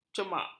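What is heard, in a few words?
"cemak", Malay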